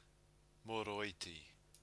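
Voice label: "moroiti", Māori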